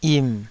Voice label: "yim3", taiwanese Syllables